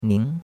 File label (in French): "ning2", chinois; Cours de Chinois